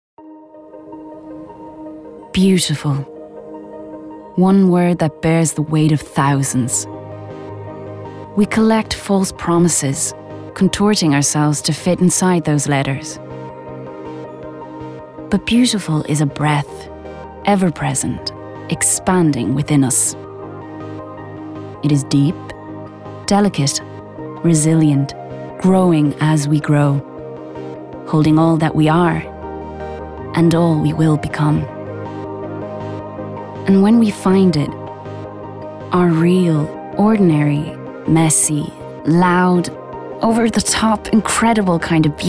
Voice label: female Irish Italian and US American Irish accent commercial; english with italian accent